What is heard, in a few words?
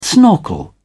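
"snorkel", British English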